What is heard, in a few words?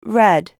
"red", en; Roulette Royal